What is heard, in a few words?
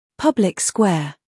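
"scandal", American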